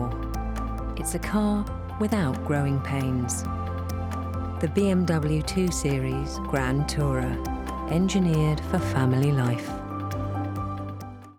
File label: RADIO BMW 7 SEATS FULL MIX FEMALE VO